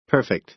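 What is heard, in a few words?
"perfect", American English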